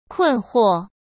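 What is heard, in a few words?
"困惑", Mandarin Chinese; chinese-flashcards; hsk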